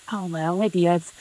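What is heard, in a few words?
voice acting, es, female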